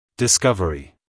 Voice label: "discovery", US, male